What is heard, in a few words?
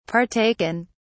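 "partaken", British English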